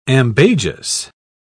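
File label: "ambagious", English, British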